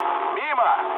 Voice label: bomb failure, mood low, speech ru; War Thunder